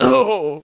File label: human old die; wesnoth